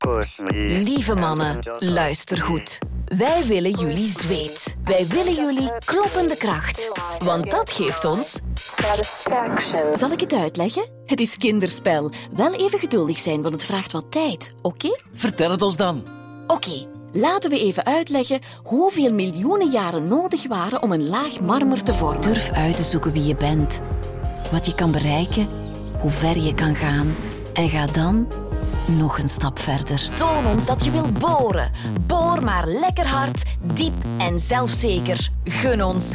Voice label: showreel corporate